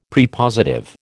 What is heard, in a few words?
"Prepositive", American